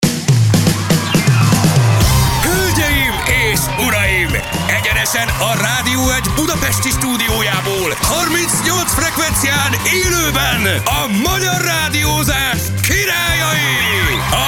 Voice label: over the top radio promo